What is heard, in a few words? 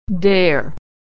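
"dare", American English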